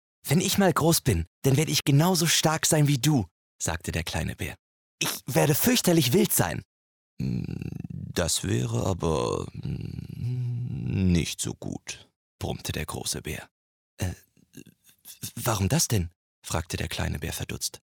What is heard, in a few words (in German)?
erzählung bären